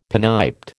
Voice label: "pinniped", American

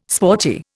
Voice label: "sporty", Indian

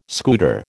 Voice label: "scooter", American

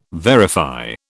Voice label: "verify", British

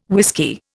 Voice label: "whisky", Indian

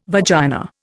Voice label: "vagina", Indian

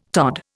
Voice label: "tod", Indian

